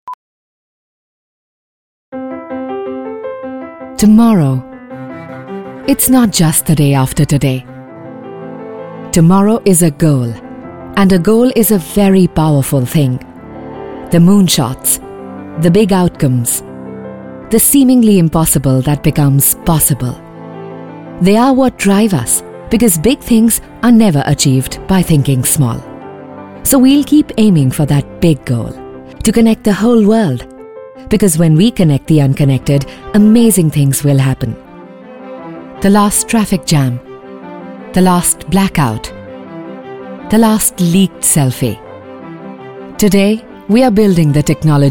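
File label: corporate english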